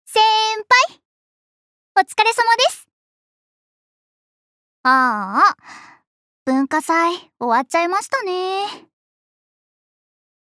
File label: girl; 女の子1